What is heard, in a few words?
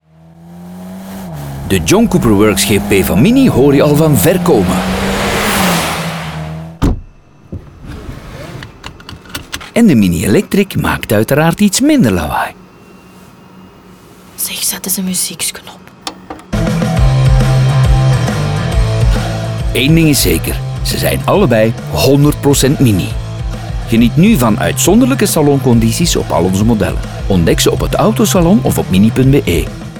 radio nl; electric